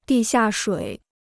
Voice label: "地下水", Chinese

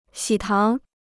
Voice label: "喜糖", Chinese

